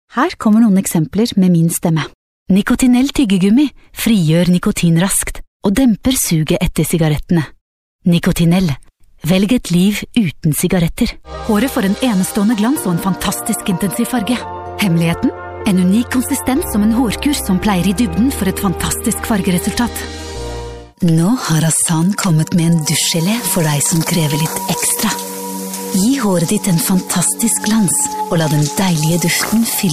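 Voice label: norwegian female commercial, voice demos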